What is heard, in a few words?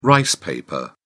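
"rice paper", British English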